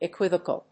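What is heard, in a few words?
"equivocal", English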